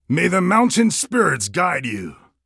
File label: Emote Good Luck; Mountain Obyn